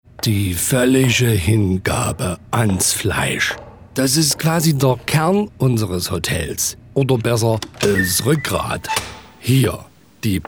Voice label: akzent Thueringisch; LOFT VOICES

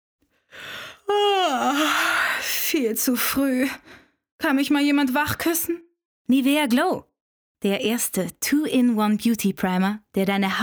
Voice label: Werbung

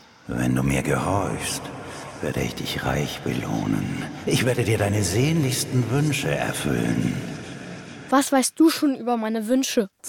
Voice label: Maerchen Hoerspiel Boeser Zauberer; LOFT VOICES

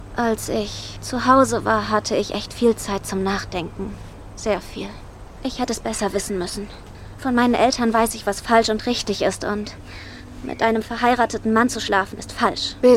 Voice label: junge Frau Reue

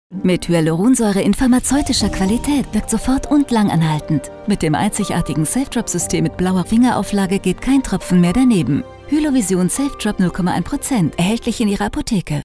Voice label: Werbung, Commercial (Werbung), German; HyloVision SafeDrop 0,1%

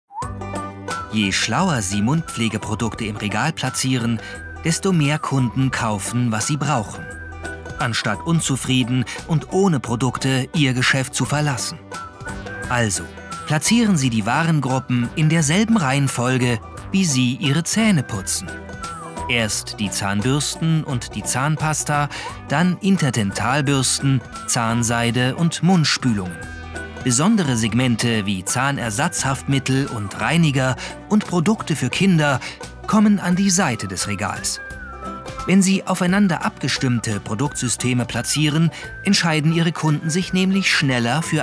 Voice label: Imagefilm; LOFT VOICES

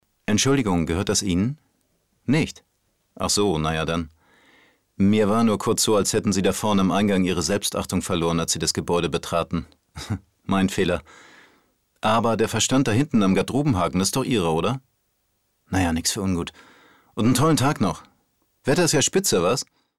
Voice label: synchron

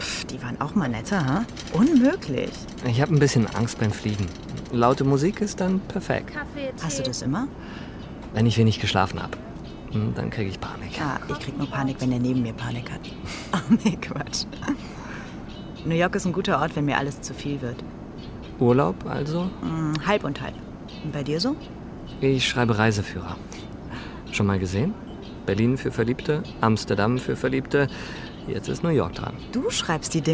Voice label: hoerspiel; LOFT VOICES

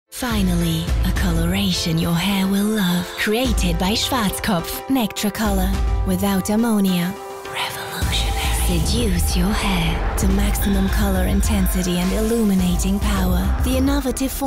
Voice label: englisch; nectracolor

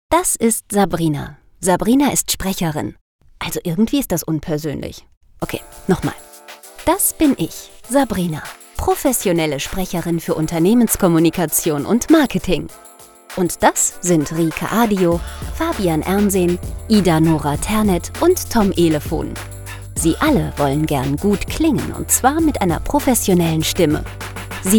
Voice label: erklaervideo

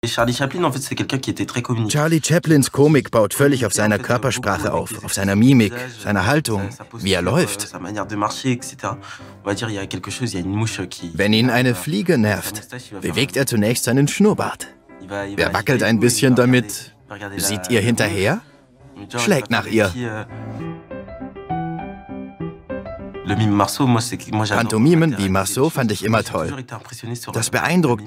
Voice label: DEUTSCH MALE Voice Over Magazin; Arte; Arte Pulse; LOFT VOICES

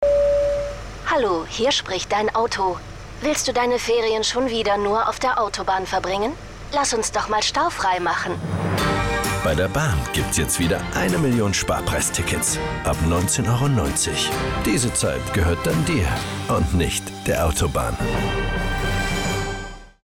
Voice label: deutsche bahn navistimme; LOFT VOICES

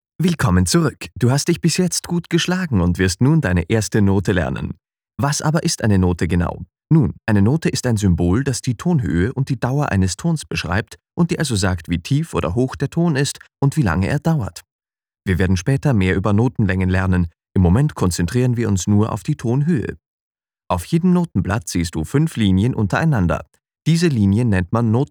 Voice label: E-Learning Musiklern App; LOFT VOICES

